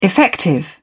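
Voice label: "effective", British English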